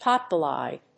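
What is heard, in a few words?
"potbellied", English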